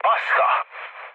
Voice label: trick, speech hun; War Thunder